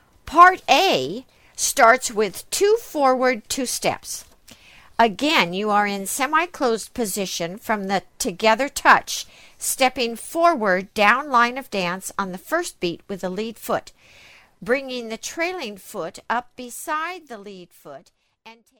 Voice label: Two Forward Two Steps, square dance